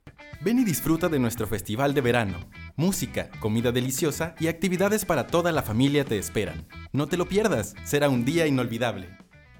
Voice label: voz dinamica musicalizado, locutor

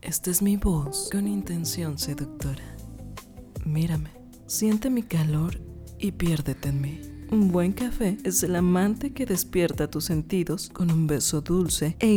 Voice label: Seductora Musicalizada

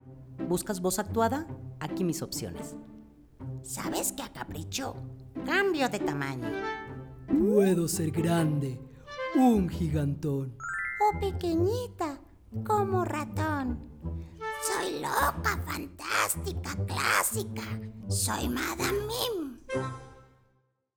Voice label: Actuada; locutora

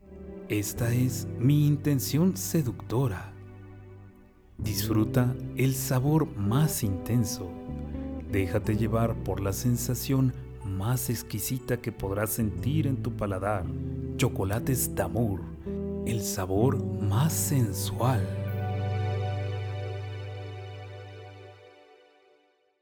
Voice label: sensual; locutor

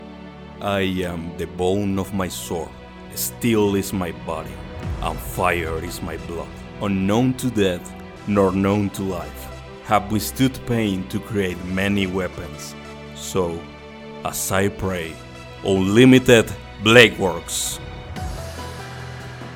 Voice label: Ingles musicalizado, locutor

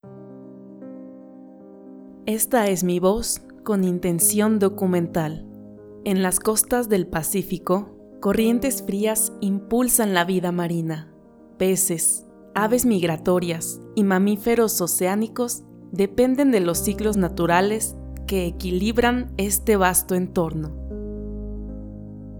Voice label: documental musicalizado, locutora

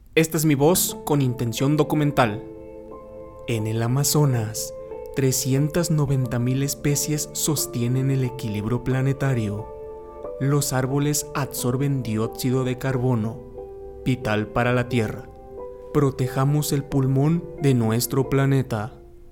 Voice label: documental; locutor

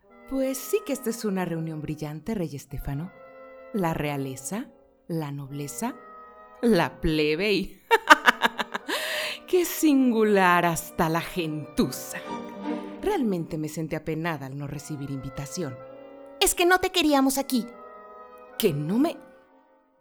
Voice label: Actuada Musicalizado, locutora

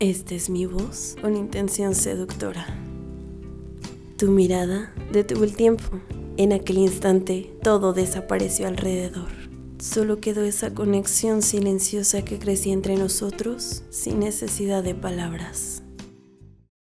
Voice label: Seductora Musicalizado, locutora

